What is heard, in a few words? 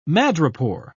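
"madrepore", British English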